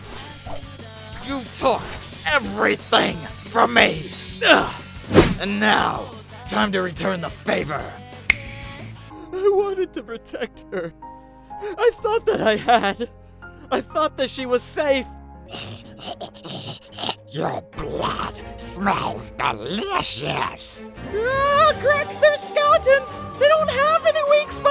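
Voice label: Character, voiceover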